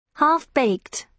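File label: "half baked", British English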